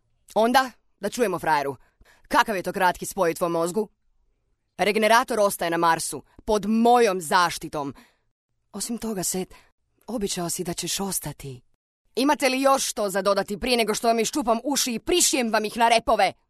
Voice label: dialogue, croatian

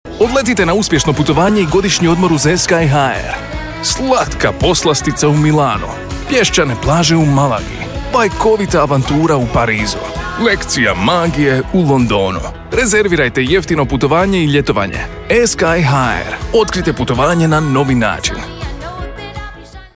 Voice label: High Energy, croatian

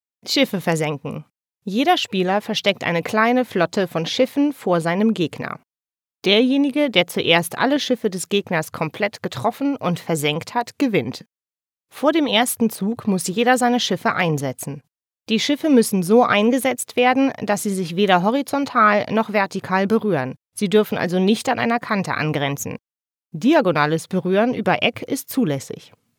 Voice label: game, german

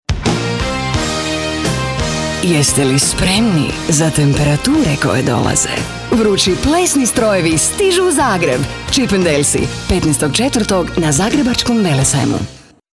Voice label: commercial, croatian

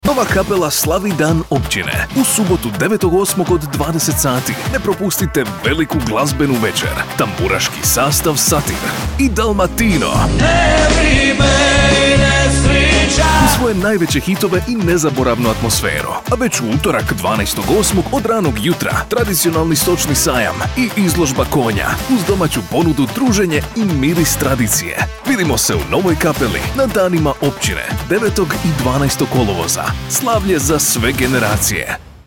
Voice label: Announcement, croatian

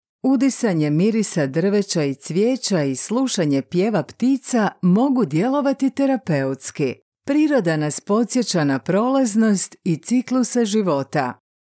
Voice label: Documentary, croatian

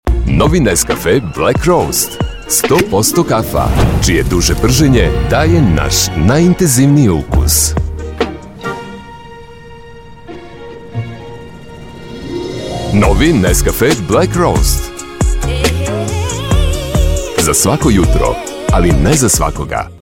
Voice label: TV, serbian

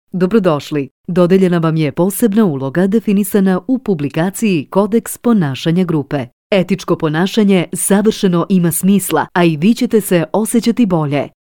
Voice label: narration, serbian

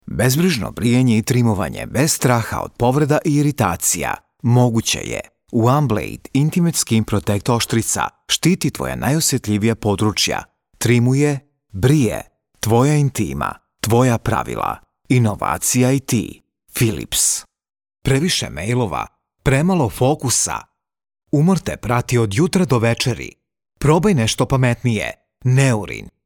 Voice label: Commercial, serbian

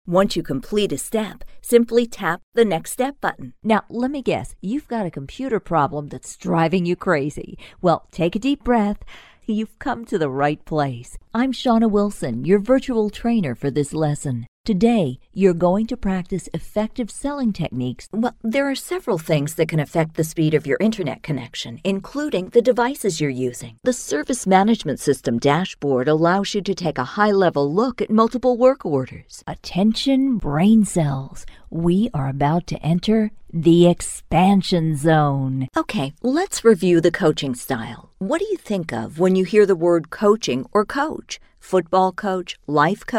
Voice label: ELearning, english, USA

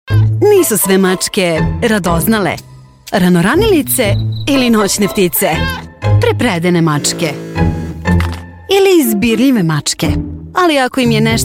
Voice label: TVC, serbian

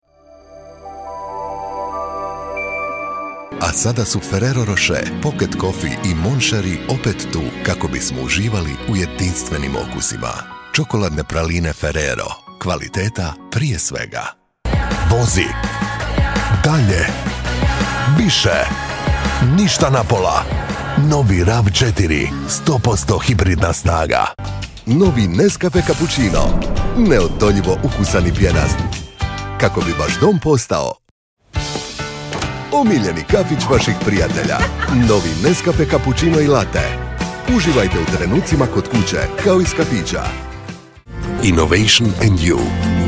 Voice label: Showreel, croatian

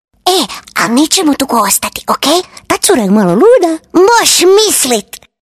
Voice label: cartoon little girl, croatian

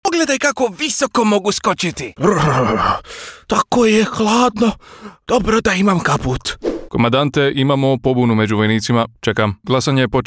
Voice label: Characters, croatian

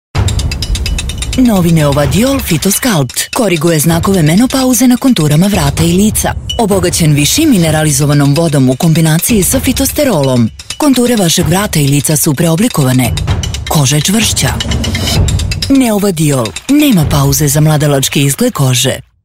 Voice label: TVC, serbian

